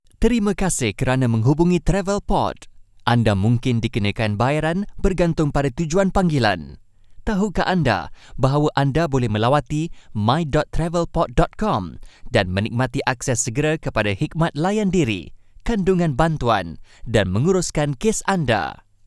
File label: Sampel IVR